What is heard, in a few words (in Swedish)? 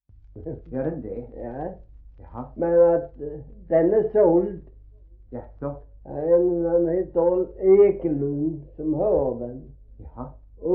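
Gråmanstorp Skåne, dialekter svenska sagor; Folkminnen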